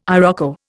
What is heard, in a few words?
"iroko", Indian